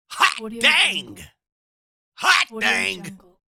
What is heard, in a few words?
male comical hot dang